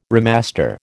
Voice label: "remaster", American